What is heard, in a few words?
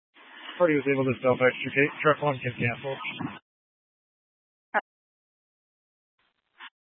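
Incident Tactical, scanner audio recording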